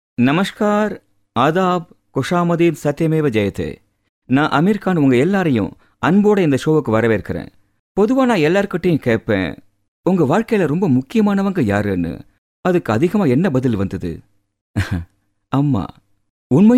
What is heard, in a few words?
Tamil